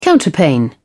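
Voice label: "counterpane", British English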